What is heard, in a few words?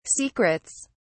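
"secrets", British English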